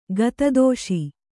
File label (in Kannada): "ಗತದೋಷಿ", Kannada